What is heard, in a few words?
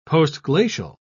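"postglacial", British English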